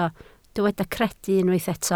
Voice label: "credu", Welsh

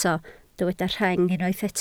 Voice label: "rheng", Welsh

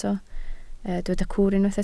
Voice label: "cwr", Welsh

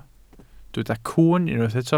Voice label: "cwwn", Welsh

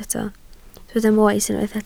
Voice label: "moes", Welsh

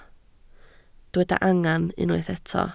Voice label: "angen", Welsh

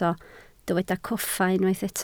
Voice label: "coffa", Welsh

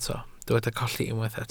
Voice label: "colli", Welsh

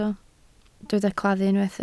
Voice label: "claddu", Welsh

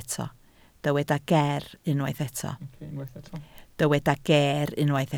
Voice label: "geer", Welsh

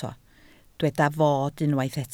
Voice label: "fod", Welsh

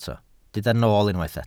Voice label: "nool", Welsh

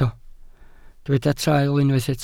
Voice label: "traul", Welsh

